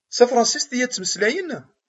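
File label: "Ad ttmeslayent tafṛansist?", Kabyle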